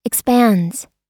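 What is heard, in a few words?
"expands", US English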